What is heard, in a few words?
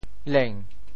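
"leng2", Teochew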